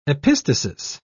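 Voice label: "epistasis", British English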